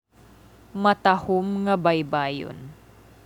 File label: Cebuano; beautiful beachfront - matahum nga baybayon